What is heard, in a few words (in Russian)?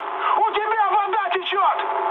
damaged water, mood high, speech ru; War Thunder